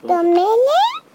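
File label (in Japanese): "gomenne" Japanese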